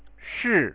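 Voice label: "shi" Chinese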